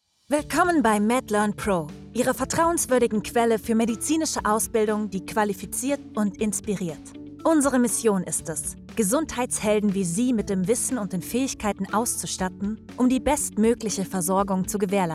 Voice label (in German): de de f medical elearning; offstimme